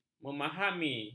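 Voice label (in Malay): "memahami", Malay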